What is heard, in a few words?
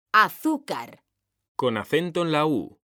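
"AZÚCAR", Spanish, female; mekanta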